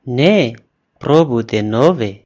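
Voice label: check answer, Chinese; gcompris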